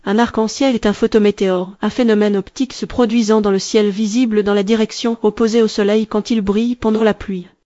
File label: speaker, fr FR; piper voices